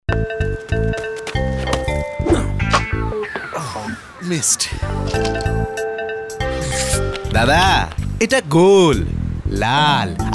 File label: male bengali voice over sales; bangla